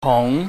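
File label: "hung", Cantonese, mid rising tone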